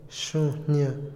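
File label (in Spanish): "peligro", Otomí, male; INALI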